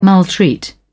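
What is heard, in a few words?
"maltreat", English, uk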